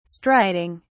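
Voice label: "striding", English, British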